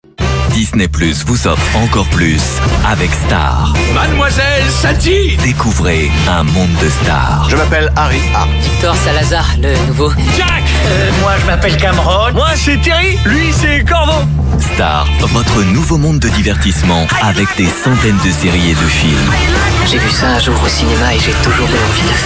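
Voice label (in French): evenement enthousiaste, voix off publicite, homme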